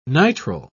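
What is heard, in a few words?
"nitrile", British English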